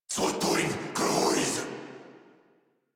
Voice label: Reload Corrupted Grineer Male

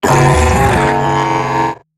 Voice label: Death Eximus Corpus Robot Large; Dialog; Barks; Battle; Corpus Robotic Large

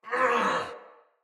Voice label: Attack, Barks Battle Soldier; Sinecure Soldier

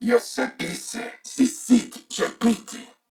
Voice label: Patrol, Corpus Venus Male; Warframe; CorpusVenusMaleA

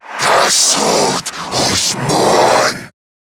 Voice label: Attack, en; Zariman Wraith

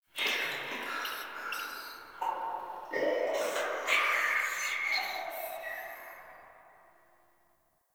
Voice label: Whispers; Inf Hub Lab; Many Lights; Warframe; Ambience; Entrati

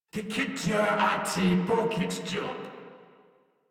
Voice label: Patrol Crewmen en; Warframe; Corpus Crewmen; Crewman D

